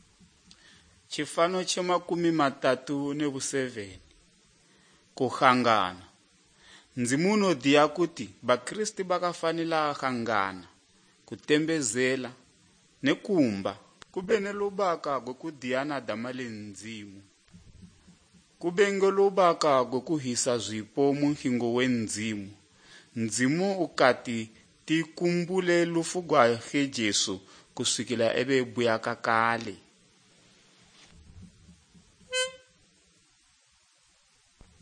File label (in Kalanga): good news kalanga lilima, drama; bible